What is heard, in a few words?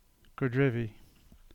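English; Godrevy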